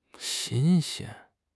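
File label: "xin1 xian1", Chinese; HSK3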